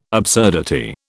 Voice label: "absurdity", British